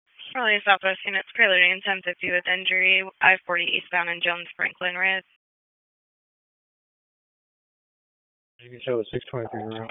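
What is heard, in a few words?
Raleigh Police Southwest District Dispatch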